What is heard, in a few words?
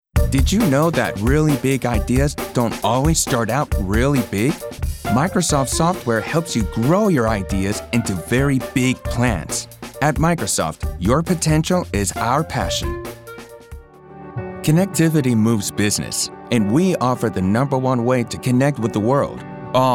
Commercial